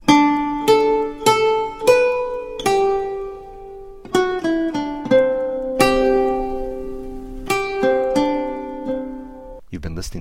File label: D spoken, World Loops; World Loops 2; MagnaLoops